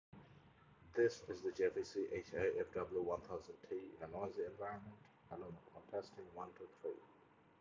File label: Noisy; JVC 1000T